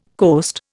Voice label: "coast", Indian

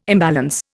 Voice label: "imbalance", Indian

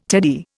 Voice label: "teddy", Indian

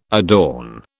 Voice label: "adorn", British

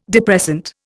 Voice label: "depressant", Indian

